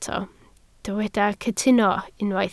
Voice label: "cytuno", Welsh